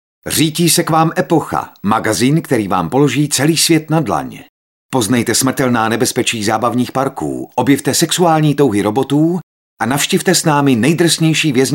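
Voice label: Male Czech commercial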